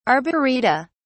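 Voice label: "arboreta", British English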